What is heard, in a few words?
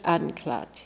"Anklad", pronounciations; Fourth Way; Beelzebub